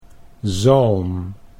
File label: "zalm", Dutch